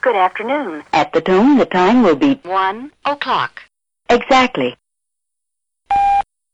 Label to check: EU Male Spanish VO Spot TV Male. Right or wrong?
Wrong